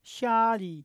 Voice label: voiceless palatalized postalveolar initial, polish